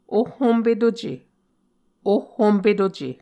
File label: "ohhompetuce", Muscogee; Mvskoke Words with Repeated Consonants; A Dictionary of Creek/Muskogee; SIL International